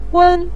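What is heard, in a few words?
"wen" neutral tone, Mandarin Chinese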